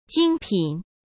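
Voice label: "精品", Mandarin Chinese; chinese flashcards; hsk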